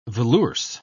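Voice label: "velours", British English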